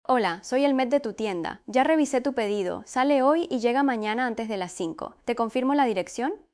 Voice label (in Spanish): adulta expresiva